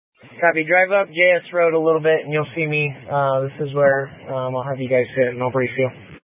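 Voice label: Incident Tactical 1, Scanner audio recording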